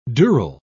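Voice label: "dural", British English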